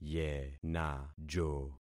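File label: "yenajo", concatenated syllables; Affixing experiment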